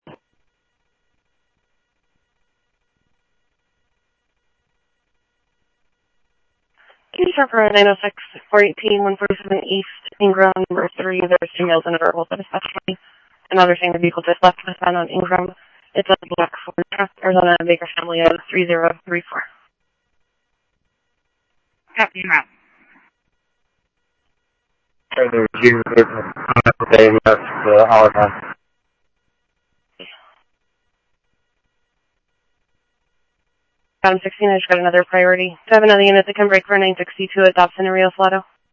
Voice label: South Scottsdale Sheriff, scanner audio